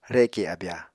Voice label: "reke abya", Apatani